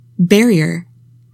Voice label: "barrier", english; 3000 words